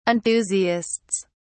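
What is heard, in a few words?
"enthusiasts", English, British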